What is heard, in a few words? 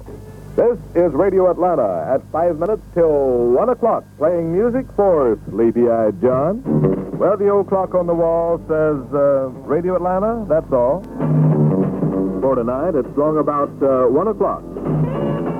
test transmission announcements